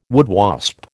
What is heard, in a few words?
"woodwasp", American